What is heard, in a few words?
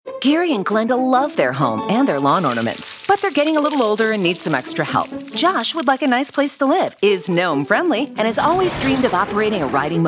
RADIO; Shared Housing; Lawn King